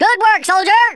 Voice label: excellent, Drill Sergeant; Worms Armageddon

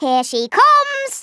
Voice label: "INCOMING", Scouser

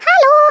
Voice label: "hello", Swedish

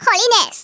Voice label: "bummer", Hispanic; Worms Armageddon

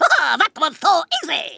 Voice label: "flawless", Kamikaze speech; Worms Armageddon

